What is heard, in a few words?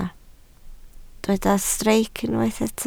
"streic", Welsh